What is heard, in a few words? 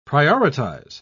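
"prioritise", British English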